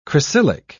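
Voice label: "cresylic", British English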